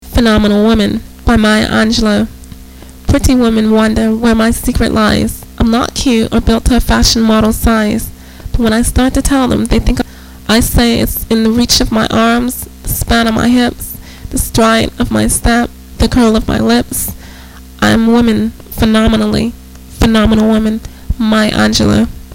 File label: poem